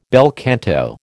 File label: "bel canto", American